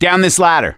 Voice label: misc directional, voice; gambler

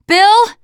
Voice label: name, teen girl; bill

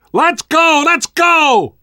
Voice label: world hospital, namvet, survivor voice

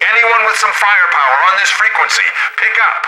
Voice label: radio initial broadcast; Left 4 Dead 2; left4dead2 dlc3; boatman; npc

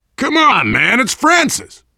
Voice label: generic responses, voice, biker; Left 4 Dead 2; left4dead2 dlc3; survivor

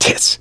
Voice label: reaction negative, voice; gambler

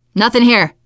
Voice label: area clear, teen girl

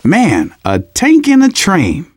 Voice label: tank in train yard, manager, survivor, voice; Left 4 Dead 2; left4dead2 dlc2

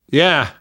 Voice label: yes, namvet, survivor voice; Left 4 Dead 2; left4dead2 dlc3

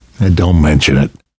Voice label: you are welcome, namvet, survivor voice; Left 4 Dead 2; left4dead2 dlc3

